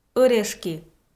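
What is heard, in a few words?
"ӧрешки", Komi, female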